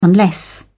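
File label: "unless", English, British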